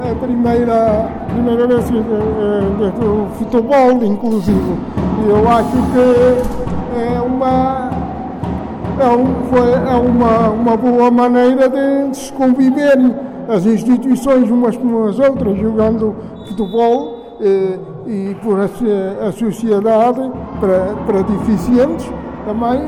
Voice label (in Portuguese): vox pop